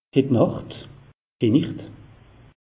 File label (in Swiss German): "hitnacht", alsace; meteo